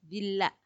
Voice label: "dilha" cold frio